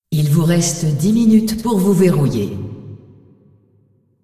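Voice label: announcer female tournaments lockin 10min, hu_hu; clash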